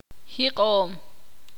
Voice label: ixil; respirar

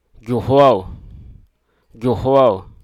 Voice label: "dismount", hruso

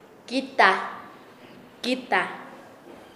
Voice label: Nasa Yuwe; macana; paez

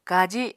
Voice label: "kaji", Apatani

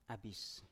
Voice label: "abis" Biak

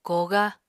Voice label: "koogá", Apatani

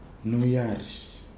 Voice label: "nui arɨs", Nafe (Kwamera); creek

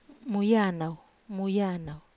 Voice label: "muyanau", Wamesa

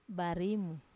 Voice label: "barimu ko", taro root, Wamesa

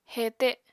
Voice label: "hete", apatani

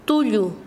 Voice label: Chanka, quechua; hueso

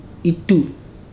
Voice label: "plantains", Ibibio

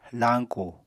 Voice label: "lañku", Apatani

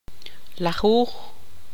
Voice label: tzutujil; diez

